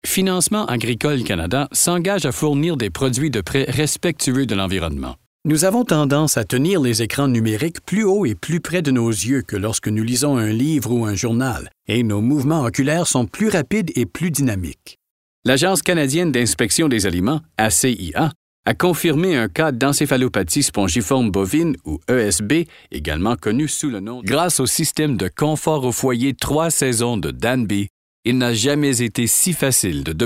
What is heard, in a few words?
french